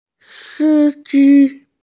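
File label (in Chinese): "si1ji1", Mandarin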